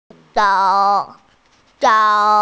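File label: "shou3", Mandarin Chinese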